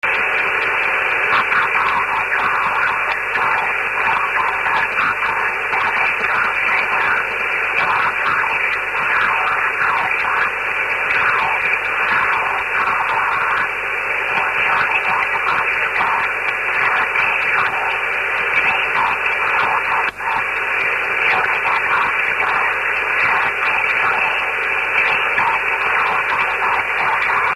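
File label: SSB cq aurora, 144 MHz; JO33QN 618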